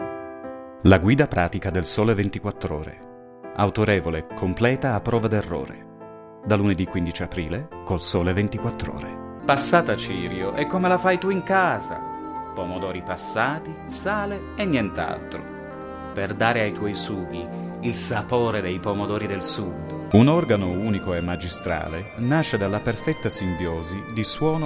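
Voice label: Italian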